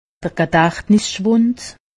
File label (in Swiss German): "gedachtnis", alsace; medecine